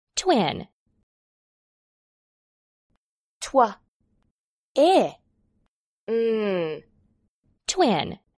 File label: "twin", English